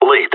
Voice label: following, radio; GSG9